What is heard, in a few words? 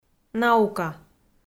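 "наука", Komi, female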